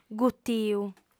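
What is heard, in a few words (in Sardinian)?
"gutiu", female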